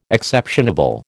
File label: "exceptionable", American